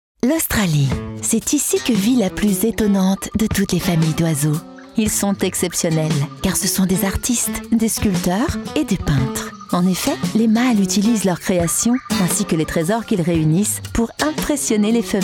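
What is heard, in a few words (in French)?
posee mutine, voix off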